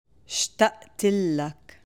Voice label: Common Arabic expressions